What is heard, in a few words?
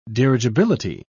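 "dirigibility", British English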